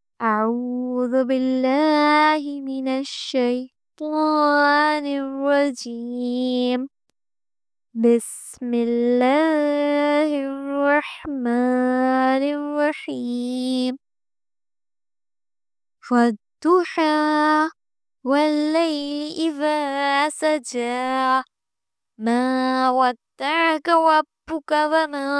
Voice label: Audio enhanced, female tutor